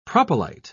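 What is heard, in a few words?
"propylite", British English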